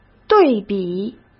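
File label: "对比", Mandarin Chinese; hsk; chinese-flashcards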